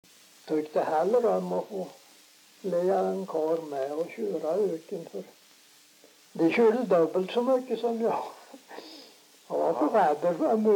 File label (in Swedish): dialekter; Folkminnen